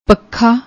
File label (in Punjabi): "pakha", Punjabi